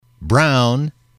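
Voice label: "brown", male, English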